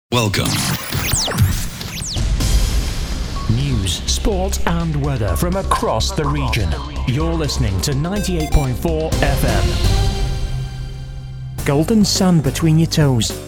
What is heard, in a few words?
Male English